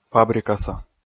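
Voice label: "фабрикаса", Komi, male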